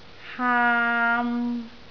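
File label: "haam3", Cantonese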